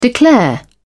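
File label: "declare", British English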